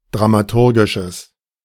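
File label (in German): "dramaturgisches", German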